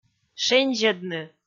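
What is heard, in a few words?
"шензьӧдны", Komi, female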